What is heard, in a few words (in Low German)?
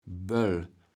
"Böll", kaevels platt